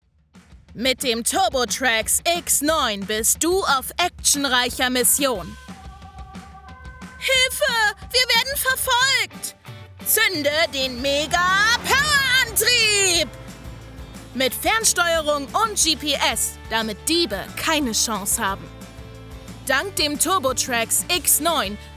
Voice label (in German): Spielzeugwerbung